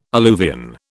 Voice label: "alluvion", British